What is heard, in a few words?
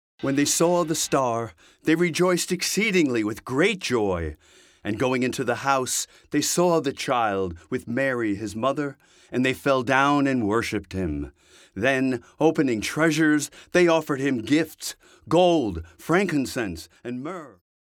Narration